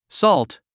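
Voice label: "salt", English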